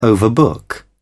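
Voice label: "overbook", British English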